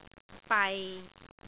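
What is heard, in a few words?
"bai6", Cantonese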